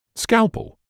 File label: "scalpel", English